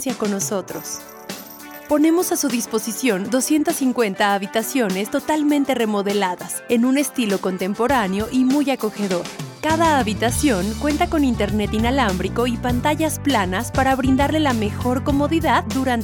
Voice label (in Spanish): espera hotel, conmutadores